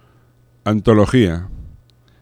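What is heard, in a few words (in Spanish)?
"antologia", Spanish; laboratori fonetica; paraules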